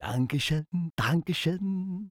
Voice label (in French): positive vocalization, fr; Medic